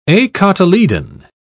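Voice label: "acotyledon", British English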